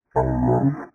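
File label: "uwu", voice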